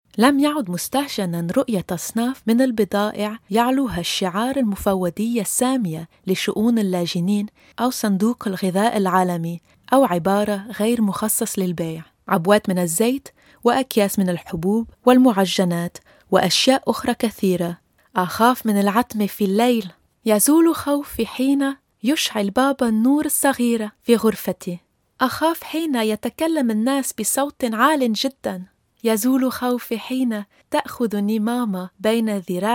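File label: Arabic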